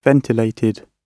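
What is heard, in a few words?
"ventilated", British English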